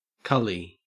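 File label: "cully", Australian English; pronunciations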